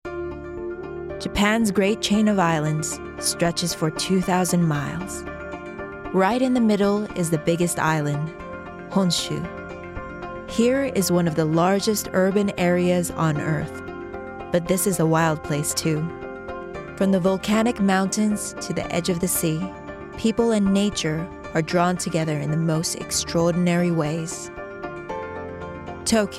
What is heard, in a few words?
US Documentary